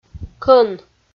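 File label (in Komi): "кӧн", Komi, female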